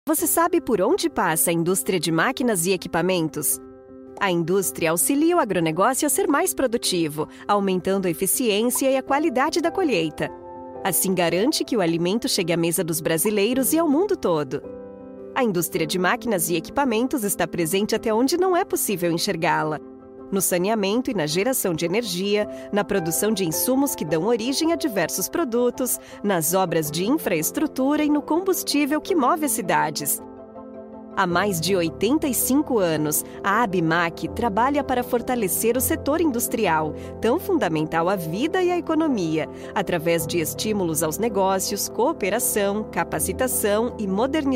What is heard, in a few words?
institucional; abimaq